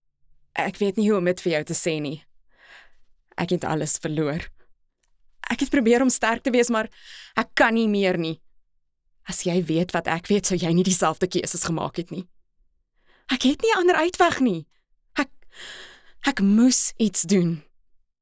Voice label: Afrikaans, Female

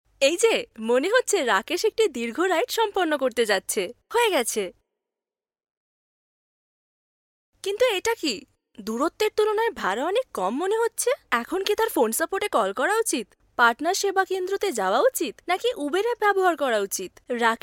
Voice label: Bengali, Female

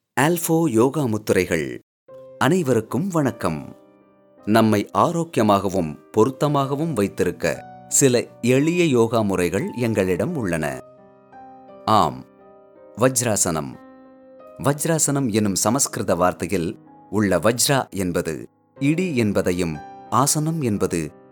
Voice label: Tamil Male

